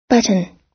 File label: "button" US